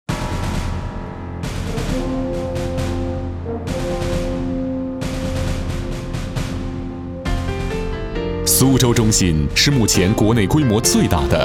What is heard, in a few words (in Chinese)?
国语男 工程介绍类; 苏州金螳螂